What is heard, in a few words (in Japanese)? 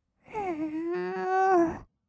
"もー", kawaii voice; 1200 kawaii voice!!; 色々なワンショット